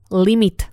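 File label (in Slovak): "limit", Slovak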